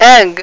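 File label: "egg", English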